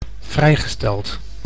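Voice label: "vrijgesteld", Dutch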